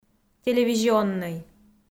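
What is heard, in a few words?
"телевизионнӧй", Komi, female; audio dict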